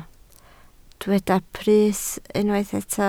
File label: "brys", welsh